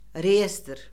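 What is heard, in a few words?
"reyestr", Azerbaijani; Orfoqrafiya Lüğəti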